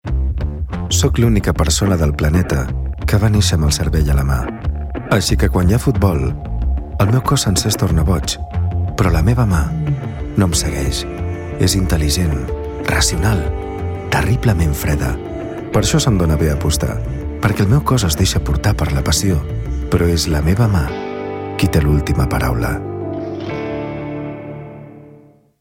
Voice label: male catalan bet comercial

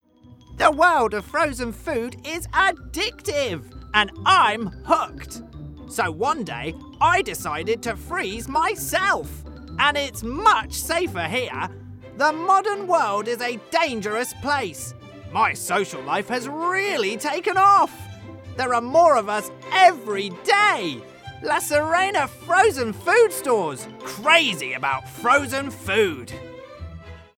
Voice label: male british english food comercial

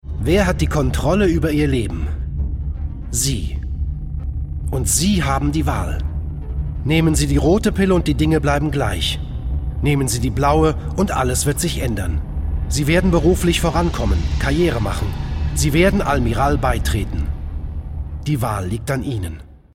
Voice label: male german brand comercial; ideasonora

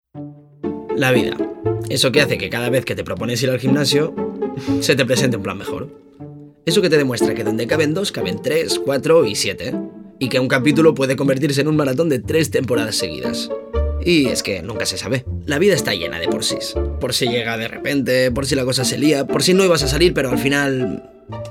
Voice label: male spanish shop comercial; ideasonora

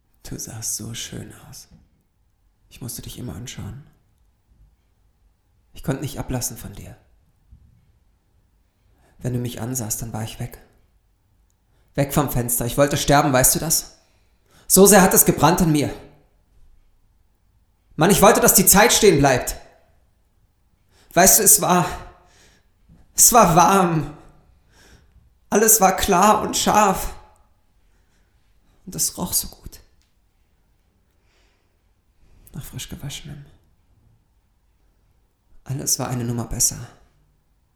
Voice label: male german acting; ideasonora

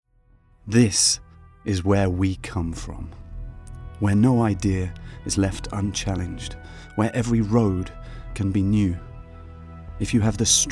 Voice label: male british english car comercial; ideasonora

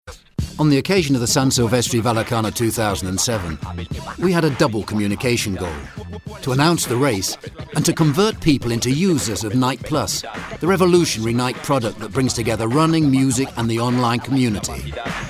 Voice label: male british english run comercial

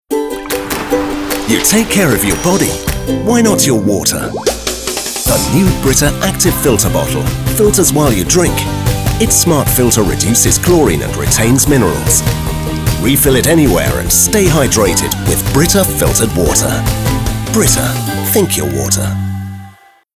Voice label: male british english water comercial

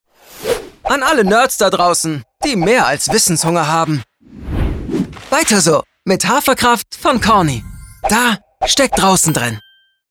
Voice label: male german comercial; ideasonora

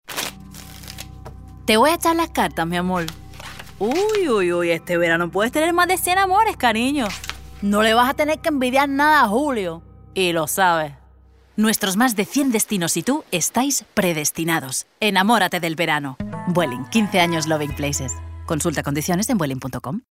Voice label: female spanish airline promo comercial

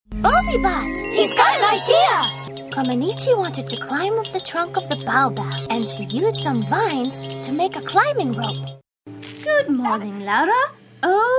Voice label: female american english comercial

